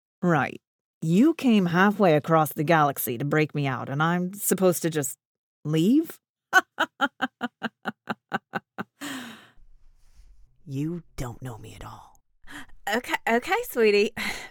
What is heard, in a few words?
english american animation